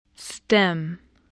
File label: "stem", voice